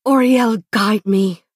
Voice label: Altmer female implore

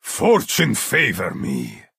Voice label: male implore

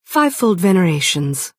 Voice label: Altmer female "hello"

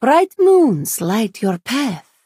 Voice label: Khajiit female hello

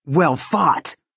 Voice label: Orc female good game

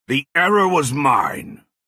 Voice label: Redguard male oops

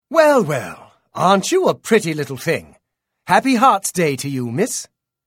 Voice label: Unused Holiday Hello High Elf Male

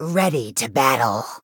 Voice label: recruit female; argonian

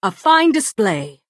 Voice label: Bosmer female "good game"

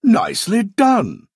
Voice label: male nice play; Dunmer

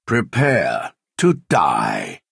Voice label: male taunt; Dunmer

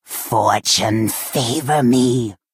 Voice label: Argonian female implore

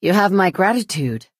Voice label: Imperial female "thank you"

